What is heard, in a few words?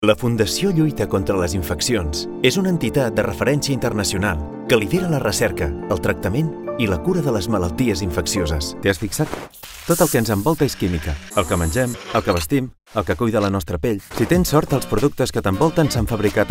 catalan corporate videos; Spanish and Catalan professional voice-over artist